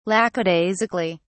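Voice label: "lackadaisically", British English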